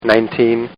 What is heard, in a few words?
Man Says, Talking; Talking Sound Effects